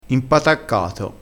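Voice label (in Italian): "impataccato", dialetto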